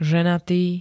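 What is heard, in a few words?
"ženatý", Slovak; bol dva razy ž.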